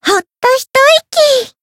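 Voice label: healed, Japanese; myungwha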